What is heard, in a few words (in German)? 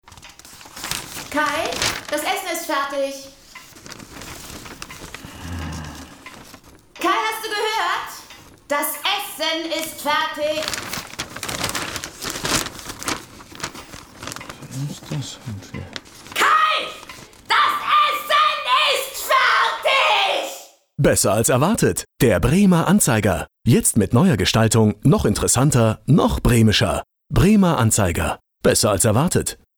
Werbung Dynamisch